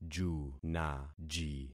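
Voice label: "junaji", concatenated syllables; Affixing experiment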